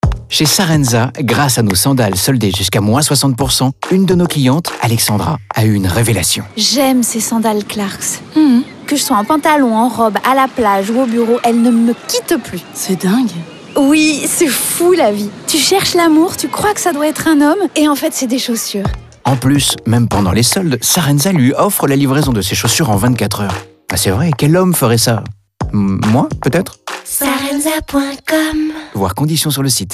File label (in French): radio pub spot